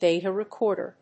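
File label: "data recorder", English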